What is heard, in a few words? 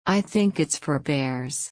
low